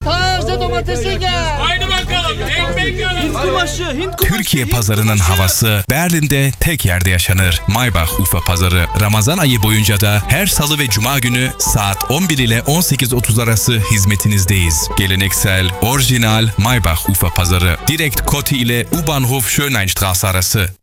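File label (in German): Radiospot für Ramadan; Maybachufer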